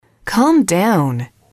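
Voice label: "calm down", English, female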